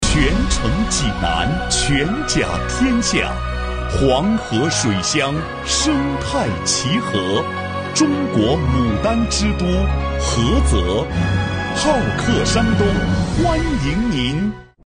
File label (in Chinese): 男; CCTV央视; 好客山东济南篇